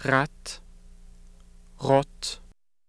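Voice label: scanian ratt rott; [ʀɑt: ʀot:]; skaansk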